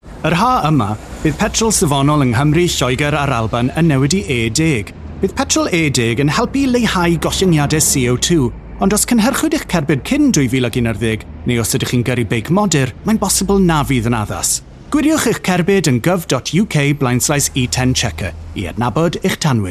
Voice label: Welsh; GovUK; 20s